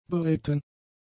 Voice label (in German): "beritten", German; adjectives